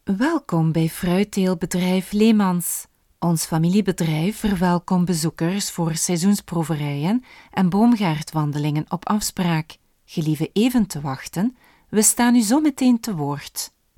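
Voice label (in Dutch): Voice Fruitteelt, voice-over, Dutch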